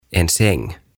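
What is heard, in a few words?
"En Säng", "Sänky", Swedish, Finnish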